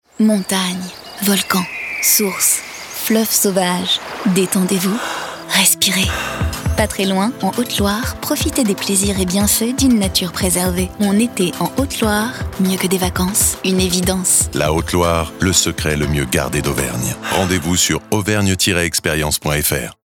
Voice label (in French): radio; haute-loire